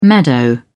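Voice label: "meadow", British English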